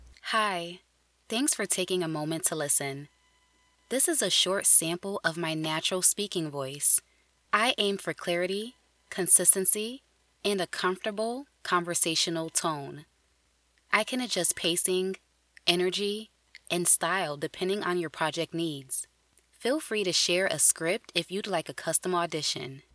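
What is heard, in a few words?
natural convo, voice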